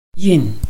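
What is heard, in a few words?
"yin4", Mandarin Chinese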